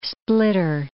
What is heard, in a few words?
"splitter", english